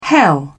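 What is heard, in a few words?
"hell", British English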